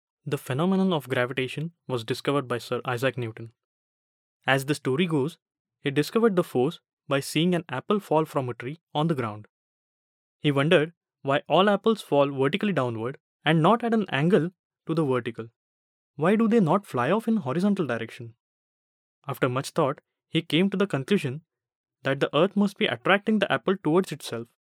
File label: male indian voice over narrative